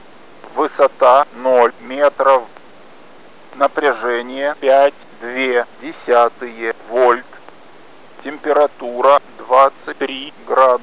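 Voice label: msg ru m, Russian, male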